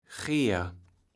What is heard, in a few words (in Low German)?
"Geer", Platt